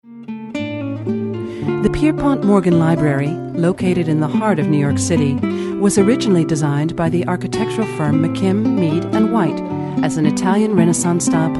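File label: english US female commercial; voice demos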